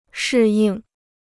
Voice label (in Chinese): "适应", Chinese